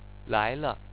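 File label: "laile", Chinese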